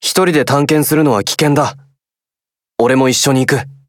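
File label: start, Japanese; sdorica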